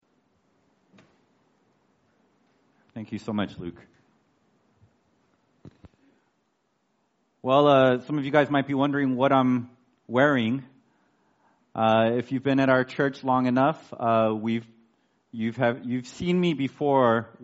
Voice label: Sermon; The Greatest Leader; the lord who saves